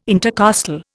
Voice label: "intercostal", Indian